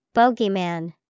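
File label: bogeyman, English vocabulary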